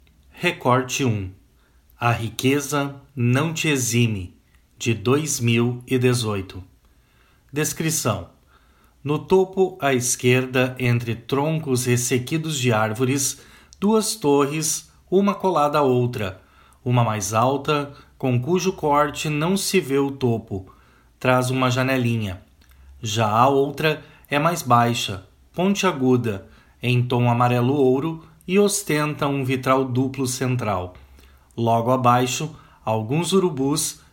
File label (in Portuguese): audiodescrição; galeria virtual